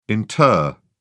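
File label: "inter", British English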